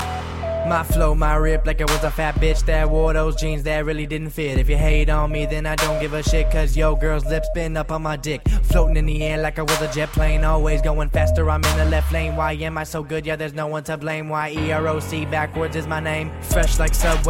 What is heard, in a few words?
Is Calling Rap